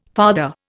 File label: "farther", Indian